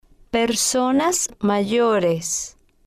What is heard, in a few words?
"personas Mayores", spanish